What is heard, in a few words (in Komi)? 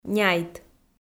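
"няйт", Komi, female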